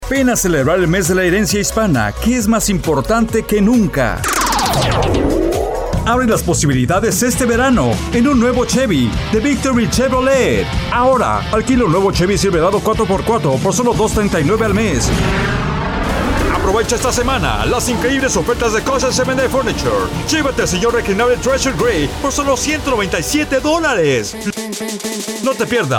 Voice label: Spanish